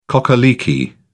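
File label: "cock a leekie", British English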